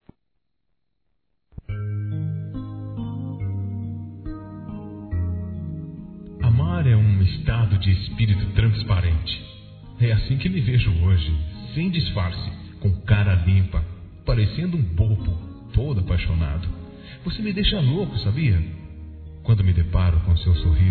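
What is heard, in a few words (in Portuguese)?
DIA DOS NAMORADOS, Masculino; Telemensagens; Telemensagens 2017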